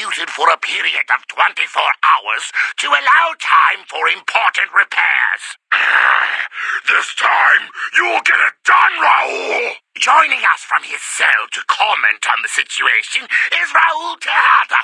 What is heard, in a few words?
News